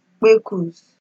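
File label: "kpekus", Nigerian Pidgin, female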